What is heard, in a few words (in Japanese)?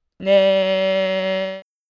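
"ね", Japanese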